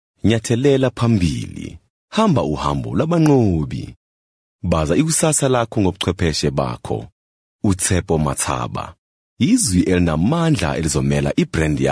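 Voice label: Zulu, voice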